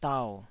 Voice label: "dau3", Taiwanese syllable

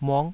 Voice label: "muk5", taiwanese

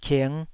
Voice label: "king5", taiwanese syllable

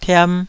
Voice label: "tiam2", Taiwanese

